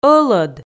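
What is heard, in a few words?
"ӧлӧд", Komi, female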